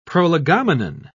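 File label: "prolegomenon", British English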